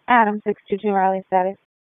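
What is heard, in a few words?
Police Dispatch; wake; p25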